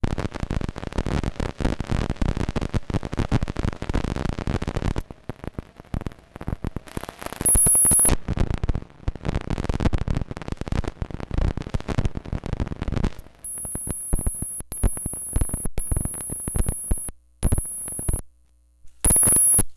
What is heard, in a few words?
voice xformer